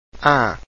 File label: a nasal, Portuguese vowels